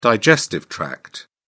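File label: "digestive tract", en, British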